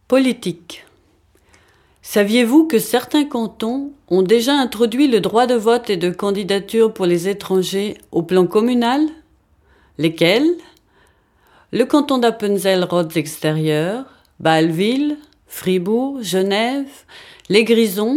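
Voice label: French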